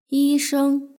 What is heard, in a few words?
"yisheng", Chinese; hsk2